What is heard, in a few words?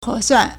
"he2suan4", Chinese